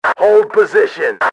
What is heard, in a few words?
hold position, radio male; XBOX